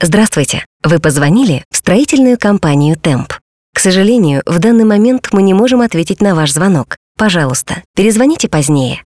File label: IVR